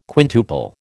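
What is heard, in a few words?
"quintuple", American English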